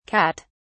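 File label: "kat", British English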